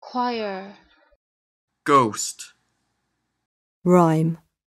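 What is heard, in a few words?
Choir Ghost Rhyme, Pronunciation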